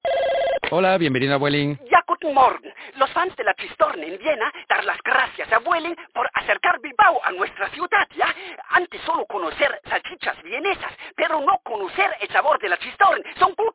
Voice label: male, Spanish Castilian with German accent, comedy radio spot